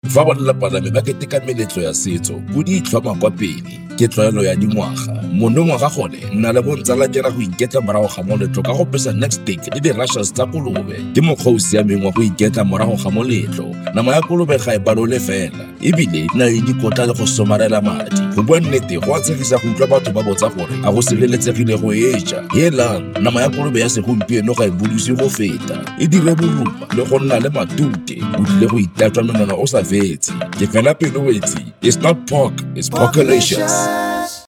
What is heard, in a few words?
Sesotho male